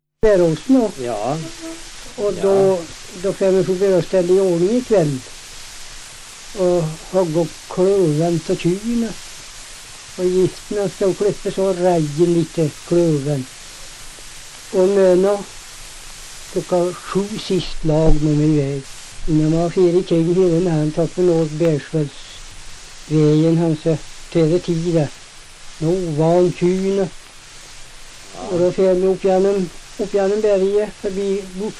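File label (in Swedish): Hede Härjedalen, dialekter; Folkminnen; Svenska sagor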